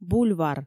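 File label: "бульвар", Komi, female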